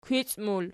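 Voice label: "kwici" plural, Archi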